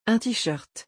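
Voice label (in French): "un tee shirt", French; vocabulaire; le lexique des vacances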